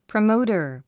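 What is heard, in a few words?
"promoter", English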